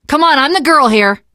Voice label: revive friend, survivor voice teen girl